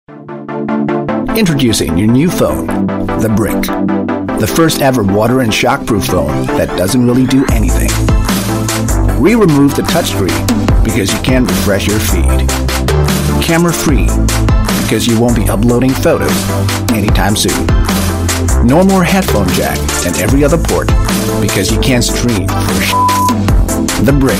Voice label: Asian English